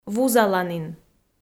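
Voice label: "вузаланін", Komi, female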